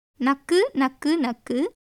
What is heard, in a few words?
Tamil